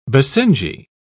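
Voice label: "basenji", British English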